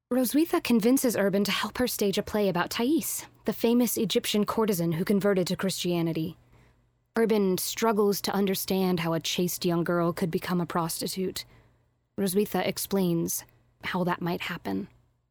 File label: Narration